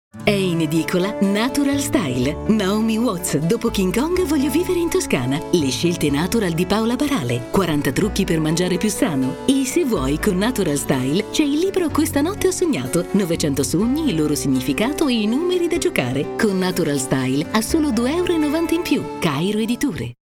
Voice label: natural style giornalistico soft